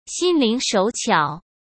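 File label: "心灵手巧", Mandarin Chinese; hsk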